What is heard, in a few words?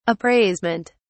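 "appraisement", British English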